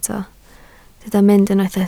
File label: "mynd", Welsh